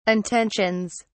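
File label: "intentions", British English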